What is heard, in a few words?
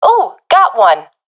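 i killed enemy, professional female; vo; Counter Strike Model Pack; CS:GO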